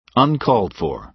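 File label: "uncalled for", American English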